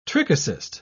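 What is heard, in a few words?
"trichocyst", British English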